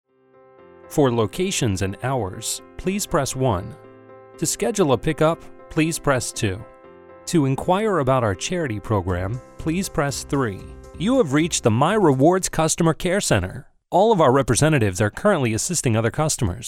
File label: Phone